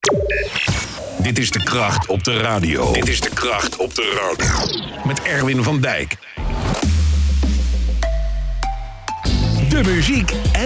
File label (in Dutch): DJ Radiovormgeving; Eemland 1